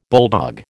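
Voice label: "bulldog", American English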